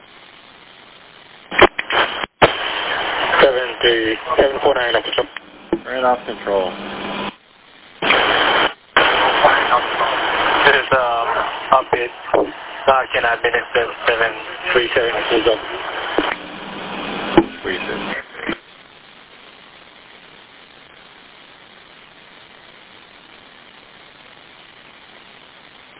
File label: Police Radio